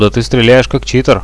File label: nice shoot, radio bot; Reallite